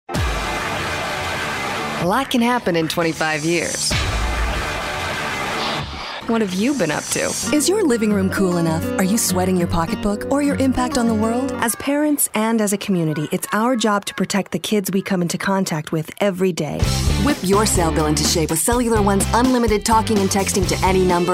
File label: Commercial